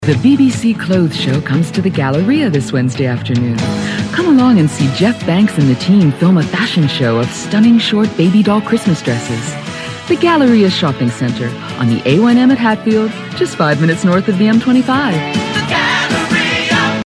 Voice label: Commercial; Galleria; Vocal Point